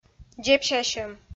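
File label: "дзебсясьӧм", Komi, female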